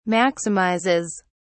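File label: "maximizes", British English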